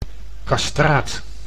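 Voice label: "castraat", Dutch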